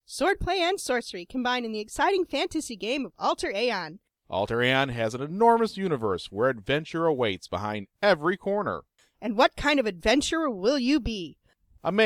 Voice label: radio ad; alter aeon class radio ad